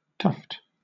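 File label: "tuft", British English; pronunciations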